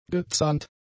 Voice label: "gezahnt", German; adjectives